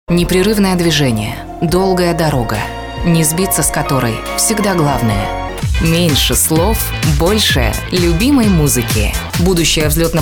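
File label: Russian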